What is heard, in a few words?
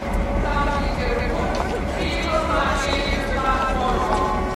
RUSSIAN ANNOUNCEMENT IN PA SYSTEM